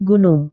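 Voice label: "gunung", Indonesian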